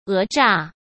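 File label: "讹诈", Mandarin Chinese; hsk; chinese flashcards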